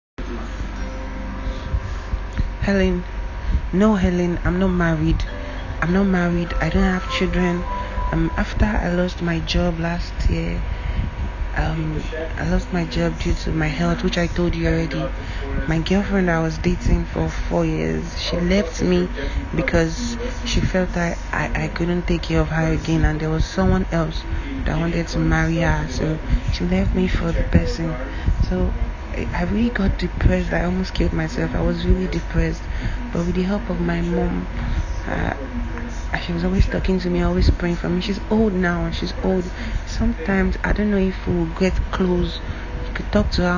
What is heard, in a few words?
audio Message